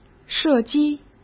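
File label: "射击", Mandarin Chinese; hsk; chinese-flashcards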